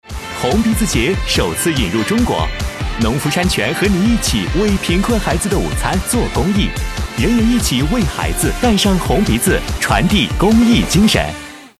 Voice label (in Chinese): 男 青春; 农夫山泉红鼻子节